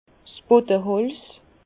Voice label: "bodeholz", Bas Rhin

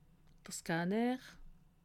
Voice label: "scanner", alsace

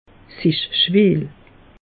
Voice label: "schweel", alsace; meteo

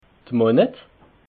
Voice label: "monet", alsace

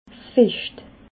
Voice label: "fecht", alsace

